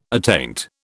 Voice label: "attaint", British

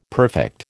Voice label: "perfect", American

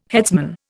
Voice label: "headsman", Indian

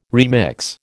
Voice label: "remix", American

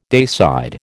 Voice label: "dayside", American

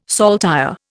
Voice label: "saltire", Indian

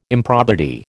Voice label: "improbity", American

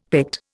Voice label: "Pict", Indian; Dictionary

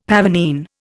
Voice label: "pavonine", Indian; Dictionary

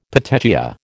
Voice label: "petechia", American

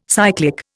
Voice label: "cyclic", Indian

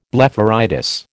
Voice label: "blepharitis", American

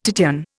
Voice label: "Titian", Indian

